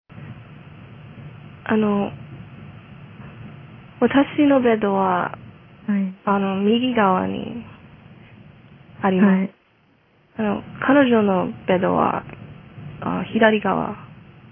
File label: Japanese speaking intermediate